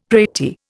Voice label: "pratie", Indian; Dictionary